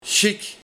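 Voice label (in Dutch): "Sjiek", dialect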